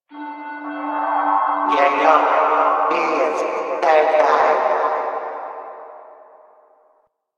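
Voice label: Announcer Female, English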